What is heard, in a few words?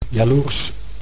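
"jaloers", Dutch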